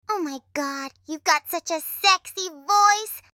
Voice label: sexy voice greeting